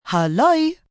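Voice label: "Hello" Aussie female; Greetings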